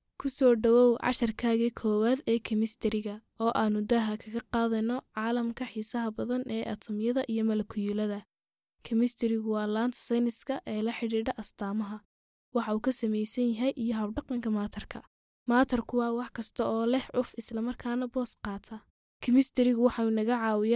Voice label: female somali voice over narrative

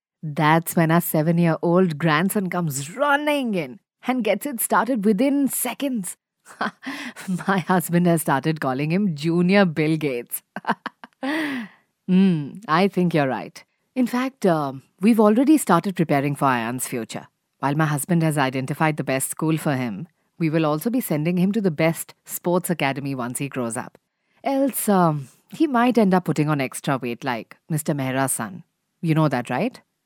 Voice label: female indian voice over character

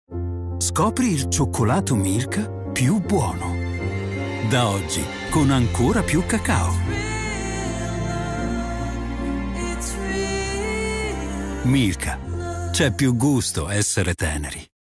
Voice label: male italian voice over sales

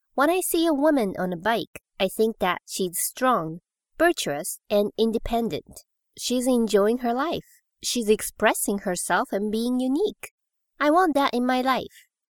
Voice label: female japanese voice over english

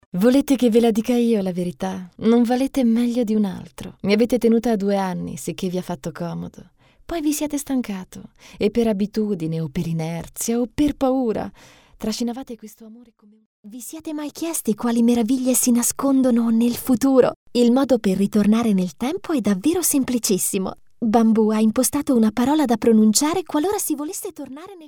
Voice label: female italian voice over character

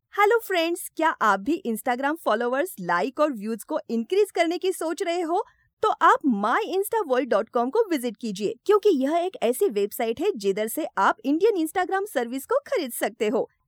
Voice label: female hindi voice over sales

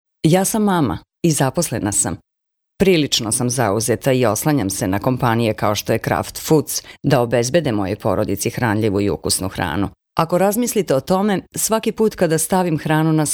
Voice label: female serbian voice over narrative

